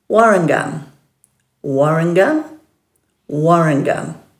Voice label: "waranganj", Dhurga

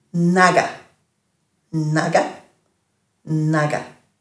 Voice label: "nhaga-", Dhurga

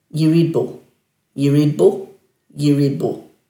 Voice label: "yiridbu-", Dhurga

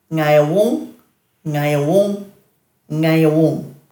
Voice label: "ngayawung", Dhurga

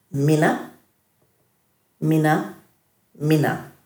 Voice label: "mina-", Dhurga

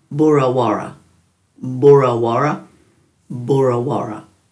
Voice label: "burawara", Dhurga

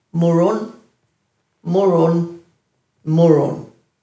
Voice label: "murun", Dhurga

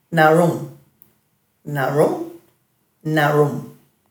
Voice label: "narum", Dhurga; dulagaal

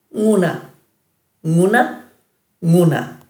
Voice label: "nguna", Dhurga; between, in among

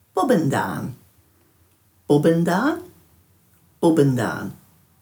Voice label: "bubandhan", Dhurga

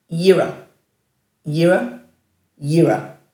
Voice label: "yira", Dhurga; dulagaal

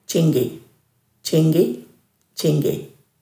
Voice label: "djinggi", Dhurga

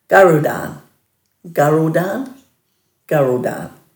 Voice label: "garudhan", Dhurga

